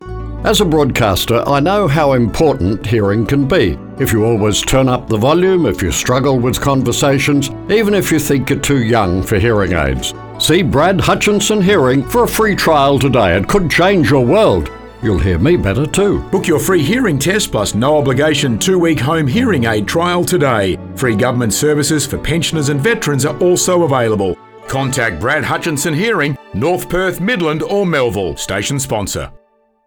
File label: Radio Ad